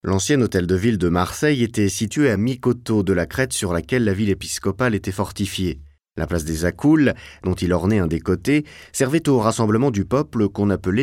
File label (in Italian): francese